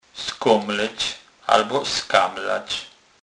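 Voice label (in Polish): "skomlec", Kurpie dialect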